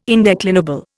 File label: "indeclinable", Indian